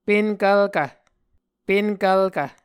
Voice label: "penkvlkv", Muscogee